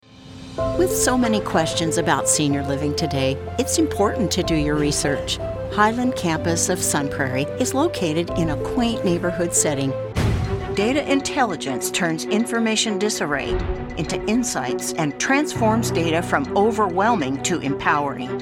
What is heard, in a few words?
Commercial